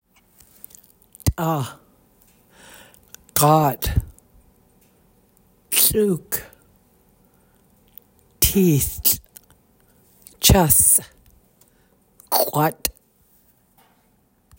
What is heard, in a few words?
Fish, Tlingit